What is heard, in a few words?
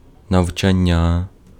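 Ukrainian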